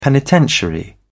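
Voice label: "penitentiary", English, British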